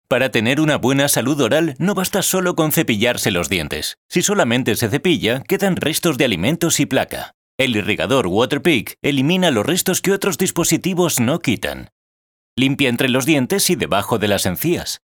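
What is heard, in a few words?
Explanatory Video; Waterpik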